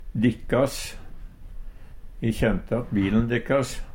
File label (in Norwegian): "dikkas", Numedalsmål; deres; Determinativ (eigedomsord)